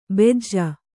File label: "ಬೆಜ್ಜ", Kannada